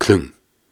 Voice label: Viking Vocal Syllables and Imaginary Words, Hard